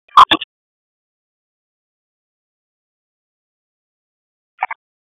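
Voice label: Dispatch, p25; wake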